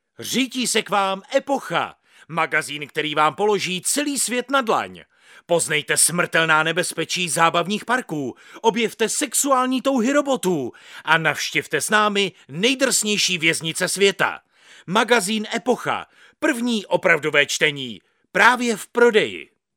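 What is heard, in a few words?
Male Czech commercial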